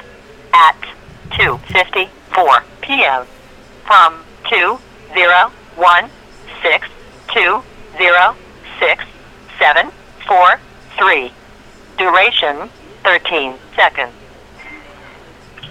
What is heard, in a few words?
Tech Call Scam Voice Mail